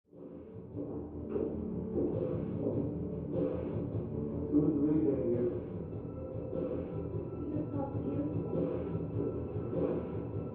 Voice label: Television; Daytime Show With Dialog And Some Music. From Next Room.